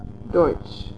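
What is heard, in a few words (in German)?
"deutsch", German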